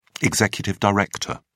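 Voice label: "executive director", British English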